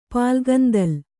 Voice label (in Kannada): "ಪಾಲ್ಗಂದಲ್", Kannada